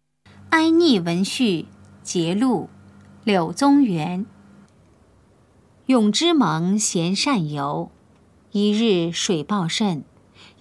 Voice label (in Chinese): putonghua; 普通話; 哀溺文序（節錄）